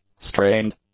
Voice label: "strained", English; adjectives